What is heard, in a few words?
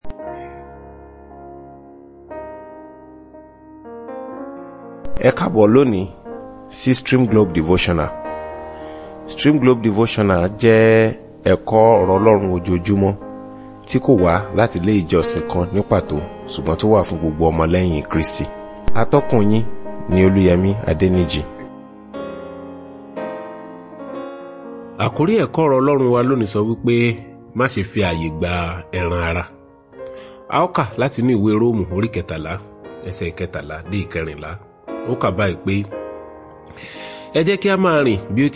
Devotional Audio Yoruba